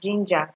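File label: "ginger", Nigerian Pidgin, female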